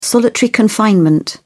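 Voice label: "solitary confinement", English, British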